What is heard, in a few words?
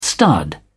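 "stud", English, uk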